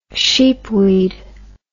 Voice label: "sheepweed", English